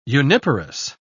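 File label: "uniparous", British English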